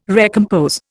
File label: "recompose", Indian